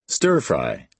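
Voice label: "stir-fry", American English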